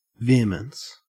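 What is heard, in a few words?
"vehemence", Australian English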